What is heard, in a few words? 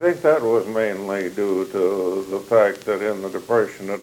Oral history with 73 year old male Meeker County Minnesota; american english dialect